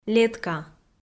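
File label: "летка" Komi, female; kpv audio dict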